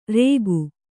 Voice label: "ರೇಗು", Kannada

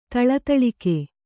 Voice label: "ಥಳಥಳಿಕೆ", Kannada

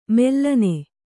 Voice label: "ಮೆಲ್ಲನೆ", Kannada; mellane; = ಮೆಲ್ಲಗೆ.

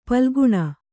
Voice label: "ಪಾಲಘ್ನ", kannada

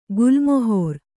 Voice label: "ಗುಲ್ಮಹರ್", Kannada

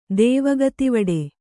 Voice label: "ದೇವಗತಿವಡೆ", Kannada

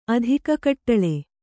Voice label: "ಅಧಿಕಕಟ್ಟಳೆ", kannada

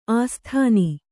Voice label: "ಆಸ್ಥಾನ", Kannada

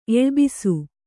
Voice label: "ಎಳ್ಬಿಸು", Kannada

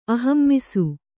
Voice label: "ಆಹಮಿಸು", Kannada

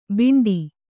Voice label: "ಬಿಂಡಿ", Kannada

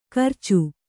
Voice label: "ಕರ್ಚು", Kannada

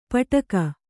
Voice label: "ಪಟಕ", Kannada

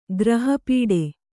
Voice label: "ಗ್ರಹಪೀಡೆ", Kannada

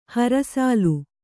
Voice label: "ಹರಸಾಲು", Kannada

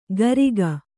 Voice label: "ಕರಿಗ", Kannada

